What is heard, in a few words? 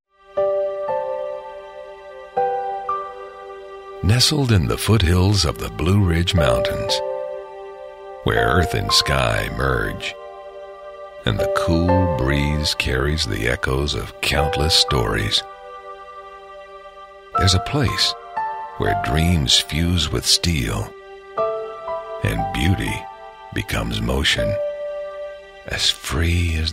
Narration, voice over